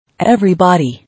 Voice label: "Everybody", English

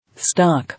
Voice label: "Stock", English

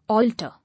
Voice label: "Alter", English

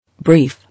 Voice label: "Brief", English

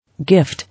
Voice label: "Gift", English

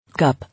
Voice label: "Cup", English

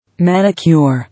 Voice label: "Manicure", English

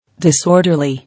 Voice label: "Disorderly", English

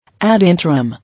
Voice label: "Ad interim" English

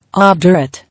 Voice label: "Obdurate", English

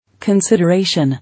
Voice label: "Consideration", English

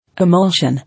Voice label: "Emulsion", English

